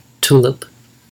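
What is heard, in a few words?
"tulip", American English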